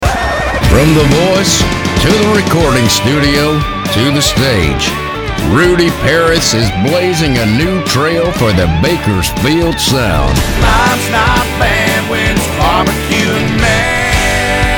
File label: Commercial